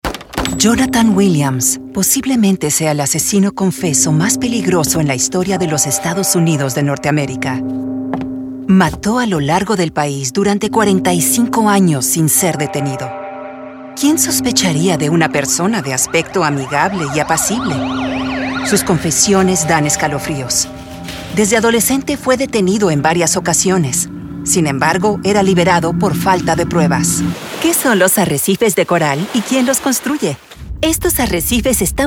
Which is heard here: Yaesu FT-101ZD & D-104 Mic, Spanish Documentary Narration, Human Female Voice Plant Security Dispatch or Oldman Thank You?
Spanish Documentary Narration